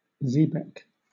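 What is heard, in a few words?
"xebec", British English; pronunciations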